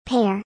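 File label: "pear", English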